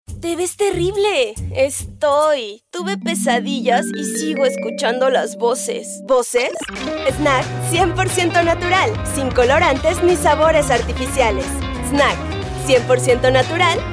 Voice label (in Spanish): locutora mexicana; mexico